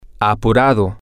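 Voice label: rushed, Spanish, male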